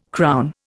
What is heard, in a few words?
"crown", Indian